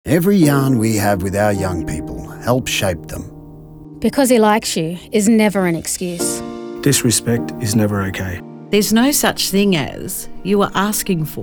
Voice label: Radio Male